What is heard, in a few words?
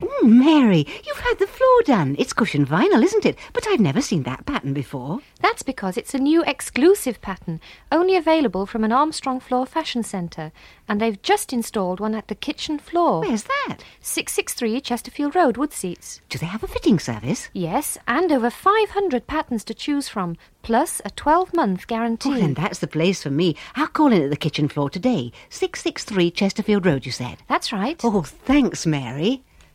Radio Hallam Advert; Armstrong Floors; Kitchen Floor